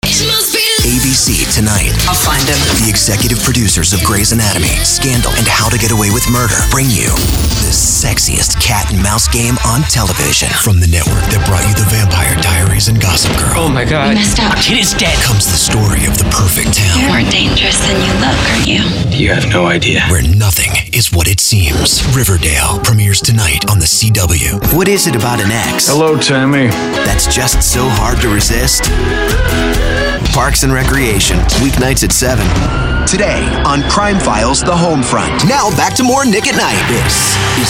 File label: men promos